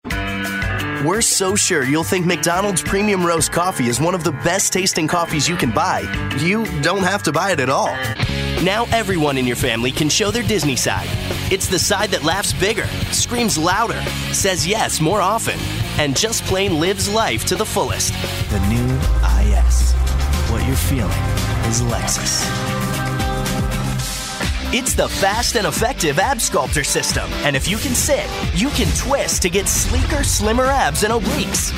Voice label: Voiceovers